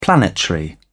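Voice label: "planetary", British English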